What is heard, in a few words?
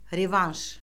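"revanş", Azerbaijani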